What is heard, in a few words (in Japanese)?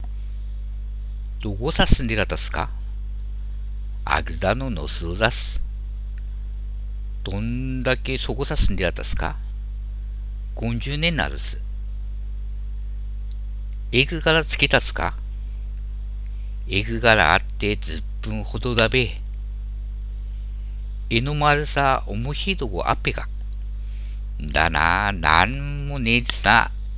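ハナメとコウメ, 秋田弁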